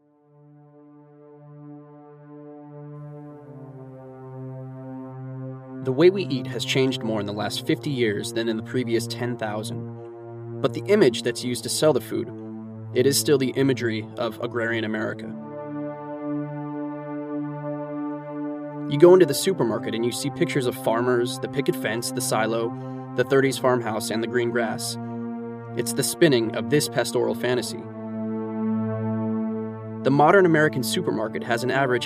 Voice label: English US

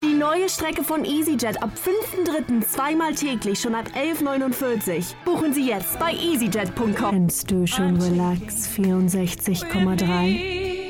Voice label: German

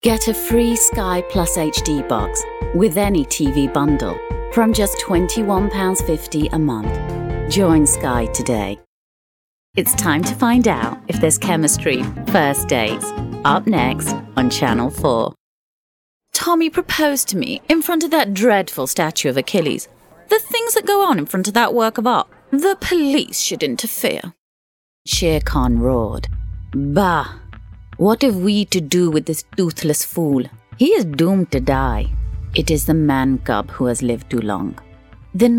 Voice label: English Uk

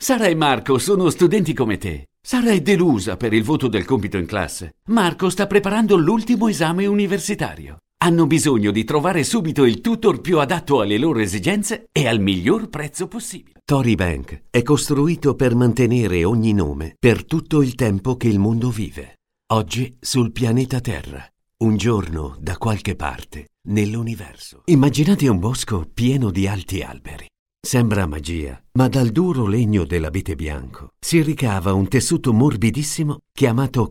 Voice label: Italian

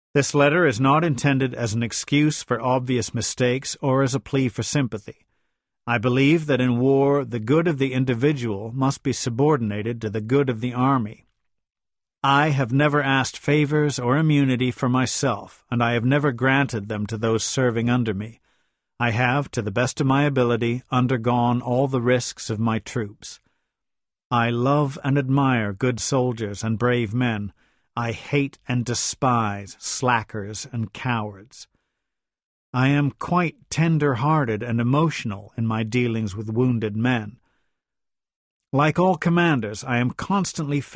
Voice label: English US

